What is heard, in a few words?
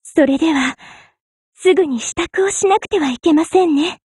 warcry